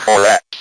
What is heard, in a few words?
speak and spell "correct"